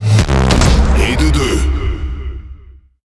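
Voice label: French Speech Double Kill; World Of Warships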